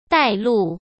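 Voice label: "带路", Mandarin Chinese; hsk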